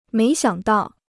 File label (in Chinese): "没想到", Chinese